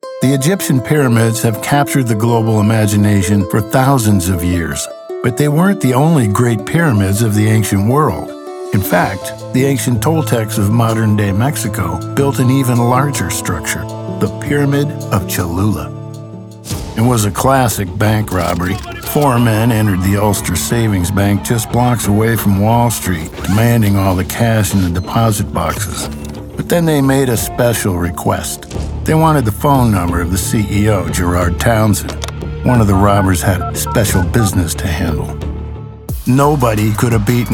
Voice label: Narration